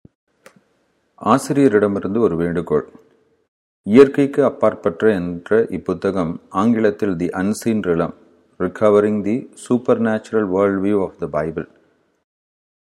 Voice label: supernatural, tamil